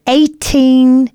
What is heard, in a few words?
announcements female